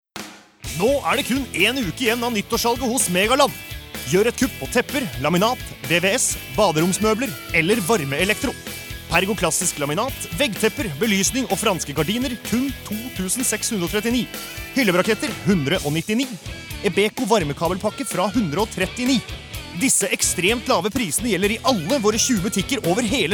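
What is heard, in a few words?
Norwegian hard sell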